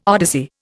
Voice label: "odyssey", Indian